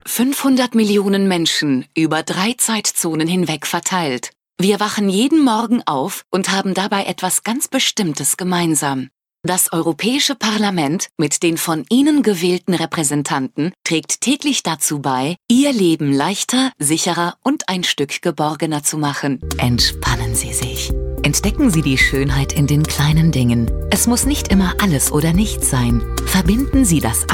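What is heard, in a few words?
GER, German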